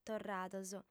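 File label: "torrados", Sardinian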